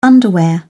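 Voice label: "underwear", British English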